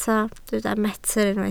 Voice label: "metr", Welsh

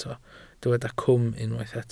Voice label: "cwm", Welsh

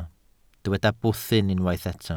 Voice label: "bwthyn", Welsh

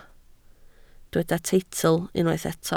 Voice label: "teitl", Welsh

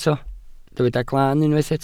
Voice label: "glaan", welsh

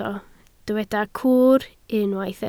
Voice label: "cwr", Welsh

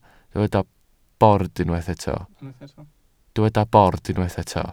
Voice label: "bord", Welsh

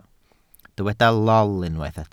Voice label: "lol", Welsh

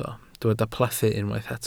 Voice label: "plethu", Welsh

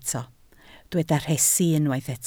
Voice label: "rhesi", Welsh

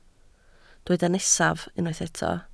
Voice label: "nesaf", Welsh

